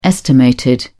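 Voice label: "estimated", British English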